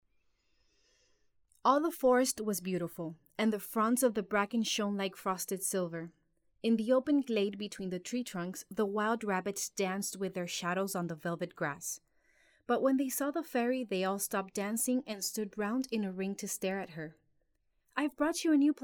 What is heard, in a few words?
narra eng